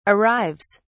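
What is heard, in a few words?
"arrives", British English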